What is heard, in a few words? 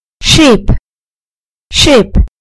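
"sheep", British English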